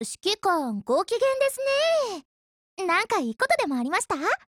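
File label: HELLO, Japanese; GSH18 Mod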